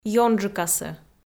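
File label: "ёнджыкасӧ", Komi, female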